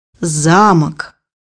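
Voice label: "castle", Russian; bukvar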